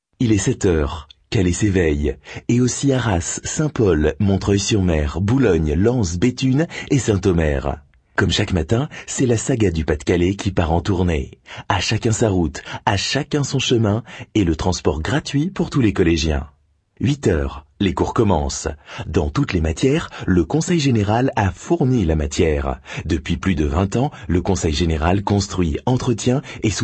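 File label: French male voice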